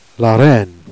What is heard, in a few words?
"reine", Jèrriais